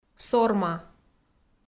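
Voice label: "Сорма", Komi, female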